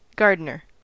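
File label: "gardener", American English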